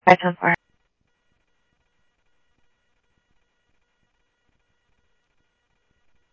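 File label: Lakes Sheriff Lake Patrol, scanner audio trunk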